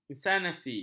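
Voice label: "insani", Malay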